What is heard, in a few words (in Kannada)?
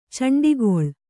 "ಚೆಂಡಿಗೊಳ್ಳು", Kannada